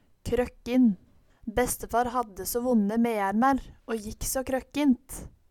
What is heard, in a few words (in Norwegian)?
krokkin, Numedalsmål